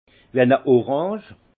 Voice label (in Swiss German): "wieneorange", alsace; vin